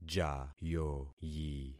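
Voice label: "jayoyi", concatenated syllables; Affixing experiment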